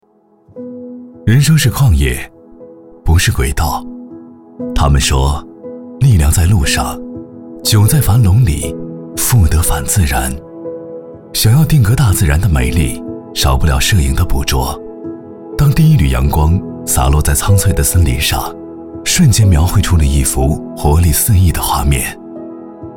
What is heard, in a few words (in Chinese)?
男 品牌广告 自然走心; 律动光影